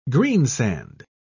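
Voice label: "greensand", British English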